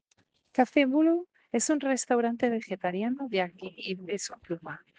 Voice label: Voice message